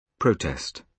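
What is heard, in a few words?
"protest", British English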